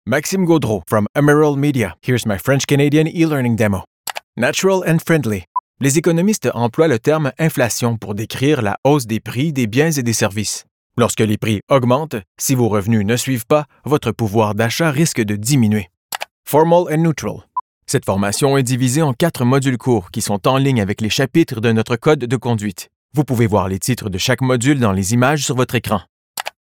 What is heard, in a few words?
eLearning FR CA, Voice